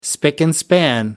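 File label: "spick and span", British English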